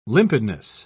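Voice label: "limpidness", British English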